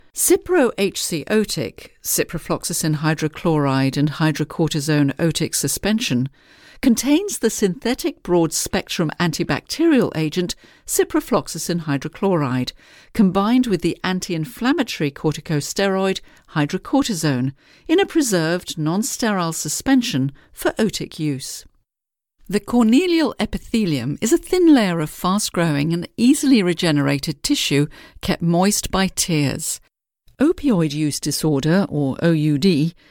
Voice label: Scientific and Medical Demo; Native British Voice Artist